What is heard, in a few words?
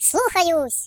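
"Yes sir", British English; Default uk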